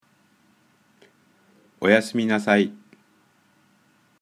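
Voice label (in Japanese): あいさつ, japonais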